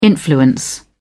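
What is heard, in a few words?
"influence", British English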